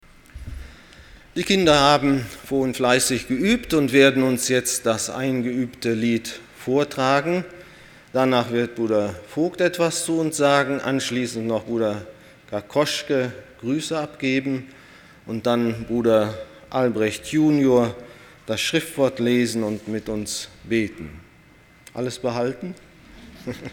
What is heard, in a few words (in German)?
Ansprache; Der breite und der schmale Weg